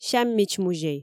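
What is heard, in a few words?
"сяммич музей", Komi, female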